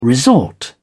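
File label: "resort", British English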